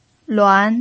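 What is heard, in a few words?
"luan", Mandarin Chinese pinyin, tone 2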